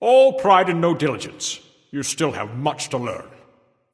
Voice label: Taunt Directed; Chiron; Apollo